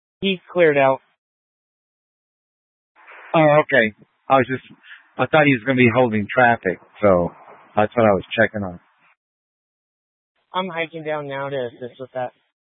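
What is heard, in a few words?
Incident Tactical 1, Scanner audio recording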